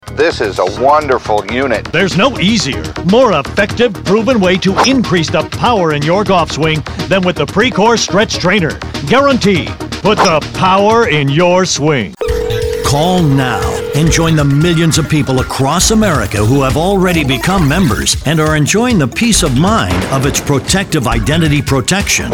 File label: Direct Response TV